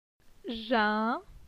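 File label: "Jin", French; phonetique; la syllabe in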